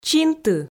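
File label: "чинты", Komi, female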